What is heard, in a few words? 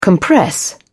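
"compress", English, British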